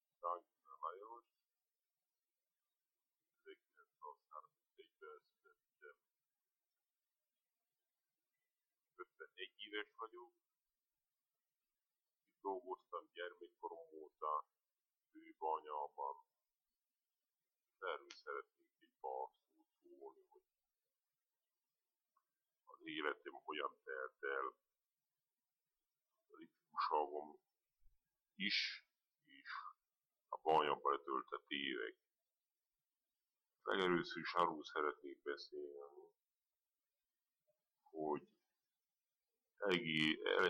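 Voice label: dialect collection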